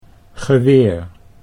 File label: "geweer", Dutch